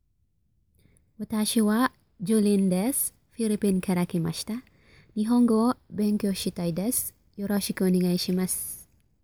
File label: japanese voice